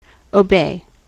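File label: "obey", American English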